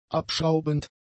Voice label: "abschraubend", German; adjectives